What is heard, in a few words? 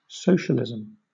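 "socialism", British English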